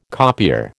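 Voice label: "copier", American English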